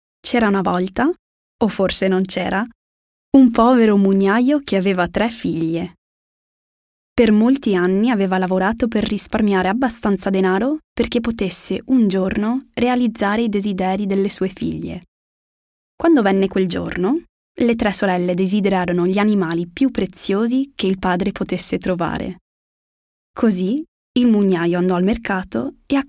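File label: Italian, audiobook; GoLocalise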